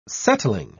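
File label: "settling", British English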